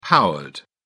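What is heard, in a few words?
"powered", English, British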